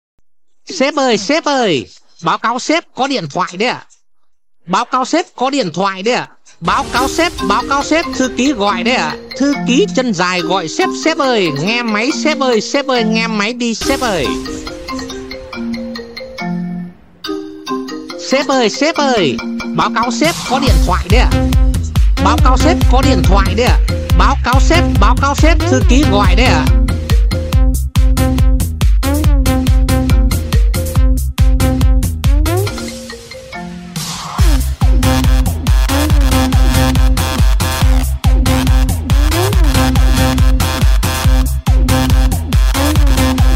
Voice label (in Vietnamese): nhac chuong bao cao sep co dien thoai